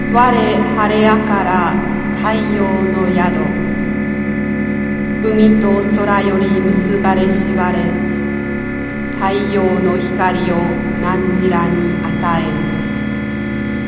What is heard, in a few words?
narration in Japanese